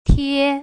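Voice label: "tie", Putonghua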